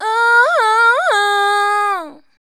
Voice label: "suffering", shouts; Best Service; Hyper Dance